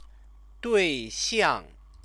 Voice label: "duìxiàng", Chinese; 对 象; boyfriend, girlfriend noun

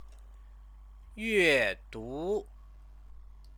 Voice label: "yuedu", Chinese

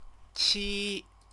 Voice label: "qi", Chinese

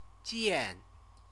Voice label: "jian", Chinese; see; verb

